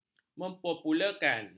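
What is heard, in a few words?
"mempopularkan", Malay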